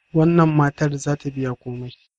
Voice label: pay, hausa

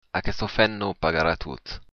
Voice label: pay, occitan

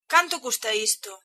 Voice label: how much, galician

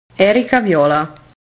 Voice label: "viola", italian

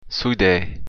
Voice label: im from, occitan

